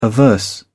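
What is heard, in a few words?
"averse", English, British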